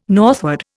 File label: "northward", Indian